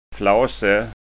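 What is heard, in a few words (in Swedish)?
"flase", framtidsskånska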